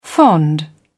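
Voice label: "fond", British English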